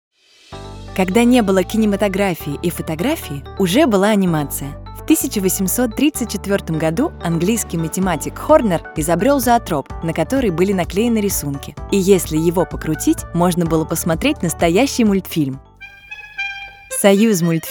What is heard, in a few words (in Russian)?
ЗАКАДР